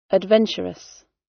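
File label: "adventurous", British English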